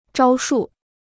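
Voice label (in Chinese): "招数" Chinese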